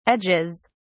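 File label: "edges", British English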